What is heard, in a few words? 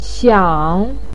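"xiang3", Mandarin Chinese, pinyin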